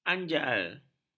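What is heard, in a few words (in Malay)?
"anjal", Malay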